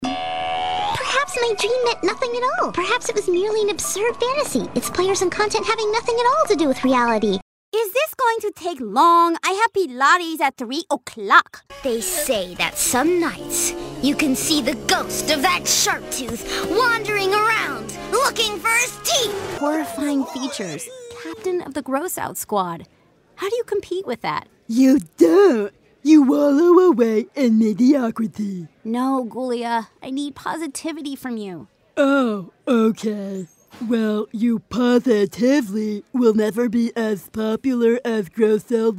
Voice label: animation, female voices